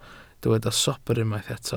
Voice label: "sobr", Welsh